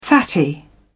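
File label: "fatty", British English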